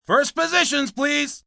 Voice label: First Positions, Film, movie, direction, assistant director, male: 'First positions please'; Talking Sound Effects; human voice speaking